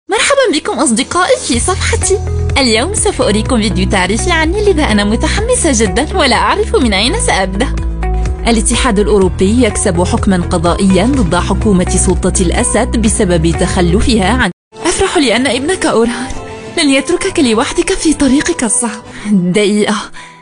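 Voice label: Arabic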